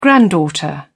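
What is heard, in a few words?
"granddaughter", English, British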